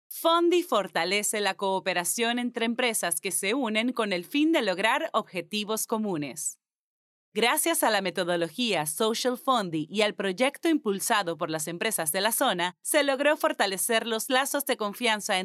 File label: Spanish (LA), Female